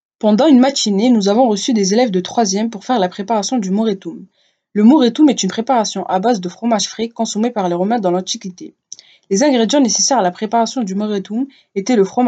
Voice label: latin moretum